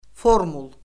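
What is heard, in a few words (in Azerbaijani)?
"formul", Azerbaijani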